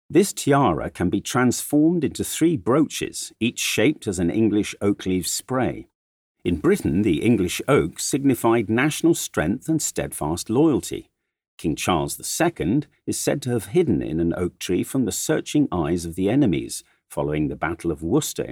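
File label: English, audio guide; MET; the met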